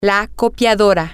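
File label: the copier, spanish, female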